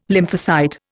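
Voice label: "lymphocyte", Indian English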